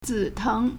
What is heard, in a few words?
"za3teng2", Chinese